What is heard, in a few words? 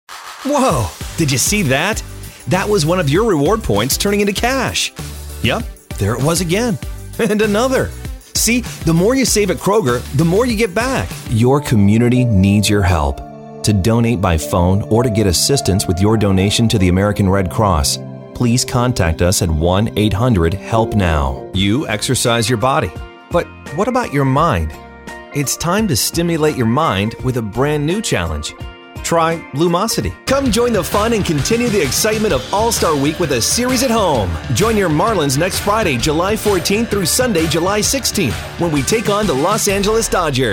commercial; male voice actor